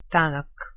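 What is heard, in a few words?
"tanak", Bosnian-Croatian-Montenegrin-Serbian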